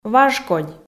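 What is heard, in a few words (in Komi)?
"важ кодь", female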